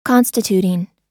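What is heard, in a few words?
"constituting", US English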